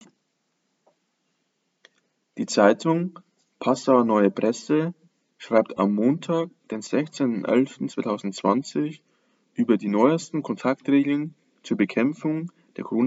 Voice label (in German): Standardsprache Textbeispiel; Textbeispiel 3 Standardsprache